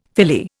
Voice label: "Philly", Indian; Dictionary